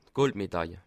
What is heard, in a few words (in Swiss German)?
"gold", Alsace